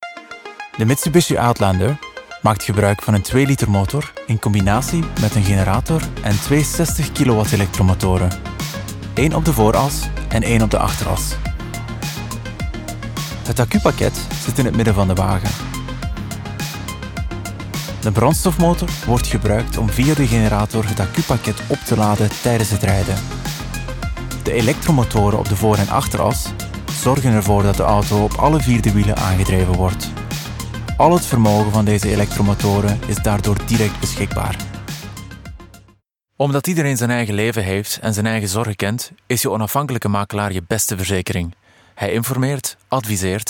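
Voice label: Flemish, male, showreel; voice over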